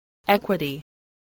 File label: "equity", voice, english